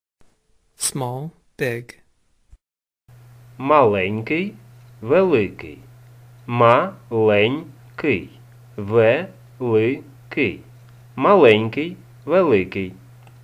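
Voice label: Small big, Ukrainian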